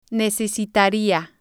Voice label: "necesitaria", spanish